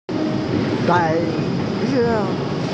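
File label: "bai2se4", Mandarin Chinese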